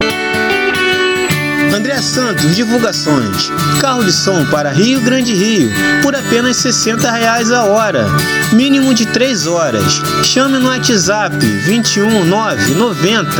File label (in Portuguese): carro de som